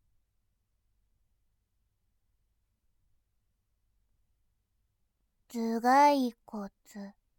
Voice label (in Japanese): 「頭蓋骨」, ASMR